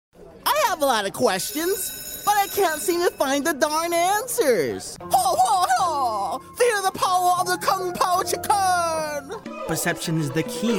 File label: Animation